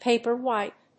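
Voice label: "paper-white", English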